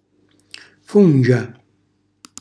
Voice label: "fungia", Calabrese; fungo